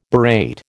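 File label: "berate", American English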